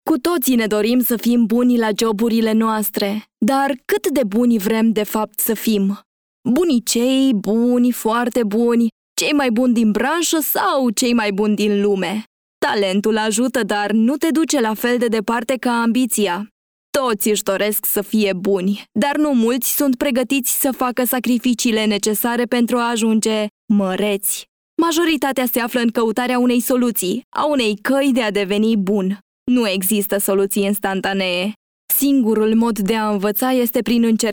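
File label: Romanian female VO TV Commercial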